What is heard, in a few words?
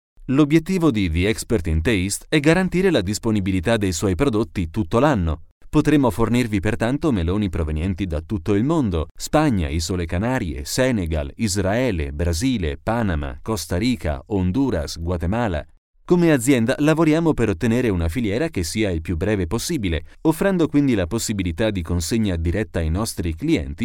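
Italian male informative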